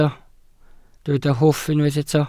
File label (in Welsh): "hwff" Welsh